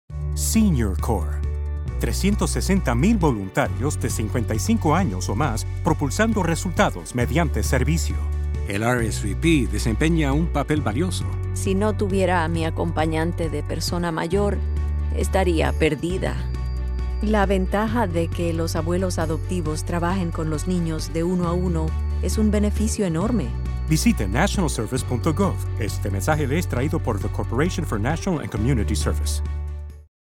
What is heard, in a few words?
Spanish; CNCS; Senior Corp